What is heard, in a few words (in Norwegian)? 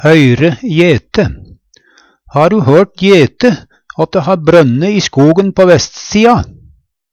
o høyre jete, Numedalsmål; å få greie på; Uttrykk